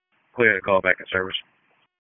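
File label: EMS DISP, radio; p25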